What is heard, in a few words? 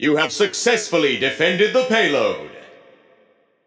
Announcer Success Defend Push; BombKing; Paladins